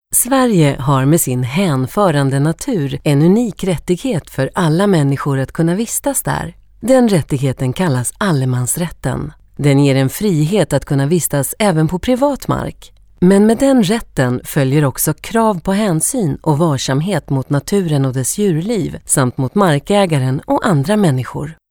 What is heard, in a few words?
se informative, svensk, kvinne